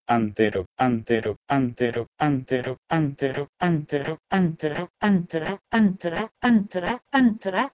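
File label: Proto-Indo-European h2entero to Lithuanian antra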